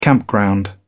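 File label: "campground", British English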